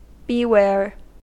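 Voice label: "beware", American English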